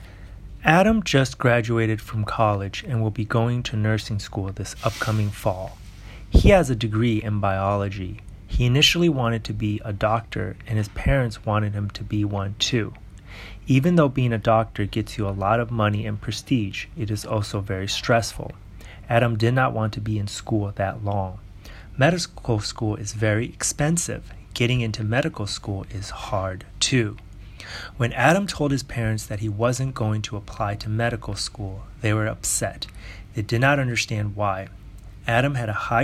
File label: A Male Nurse US